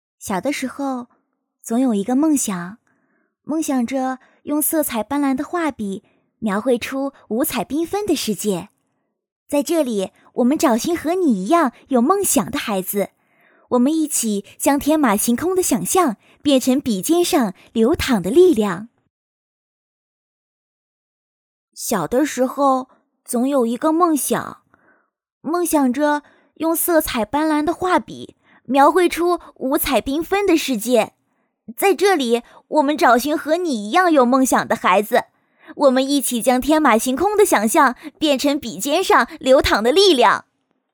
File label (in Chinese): 女 广告 童声多风格; 美术机构